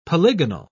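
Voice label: "polygonal", British English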